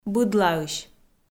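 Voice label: "быдлаысь", Komi-Zyrian, female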